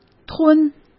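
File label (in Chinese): "吞", Mandarin; chinese-flashcards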